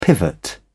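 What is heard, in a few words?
"pivot", British English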